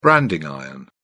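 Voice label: "branding iron", British English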